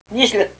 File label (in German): "nischl", Erzgebirgisch